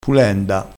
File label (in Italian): "pulenda", crespinese